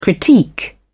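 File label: "critique", British English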